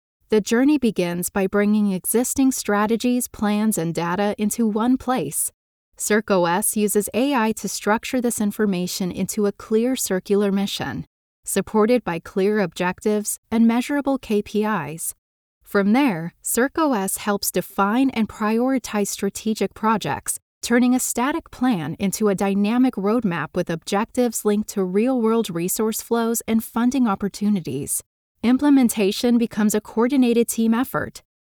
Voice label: female american voice over narrative; english american

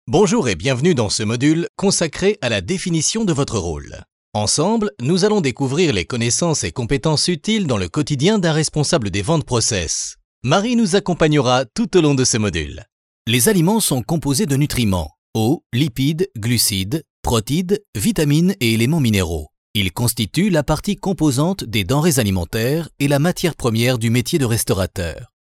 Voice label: male french voice over talent narrative

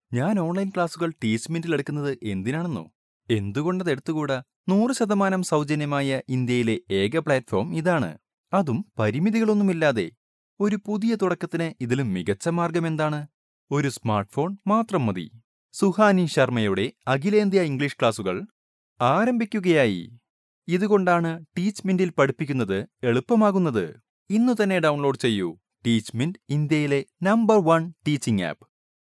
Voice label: male malayalam voice over talent sales

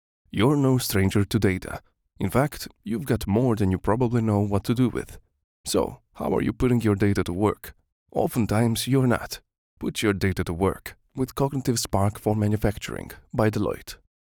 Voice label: male croatian voice over talent english

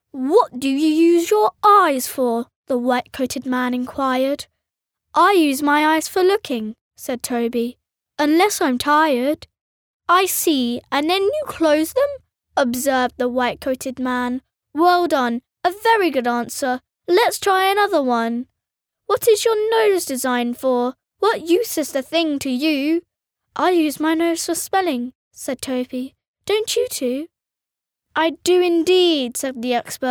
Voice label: girl british voice over upbeat, english british

